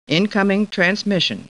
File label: Star Trek Computer Voice; StarTrekLCAR